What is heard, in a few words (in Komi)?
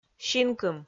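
"синкым", Komi, female; kpv audio dict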